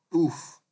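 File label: "kpuf", Nigerian Pidgin, male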